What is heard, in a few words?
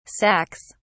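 "sacs", English, British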